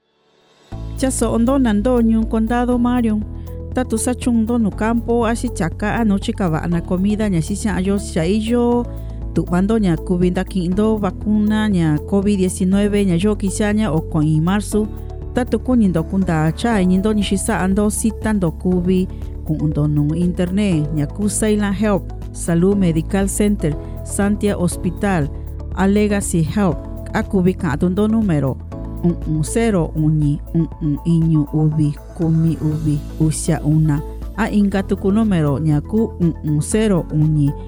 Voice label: Mixteco Bajo; Marion; Vaccine Radio Spots; covid19